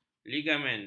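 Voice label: "ligamen", Malay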